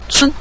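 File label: "cun", Mandarin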